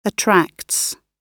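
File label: "attracts", British English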